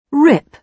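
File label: "rip", British English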